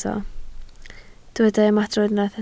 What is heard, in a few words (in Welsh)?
"ymadrodd", Welsh